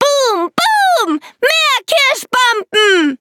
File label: levelup, de; Cookie Run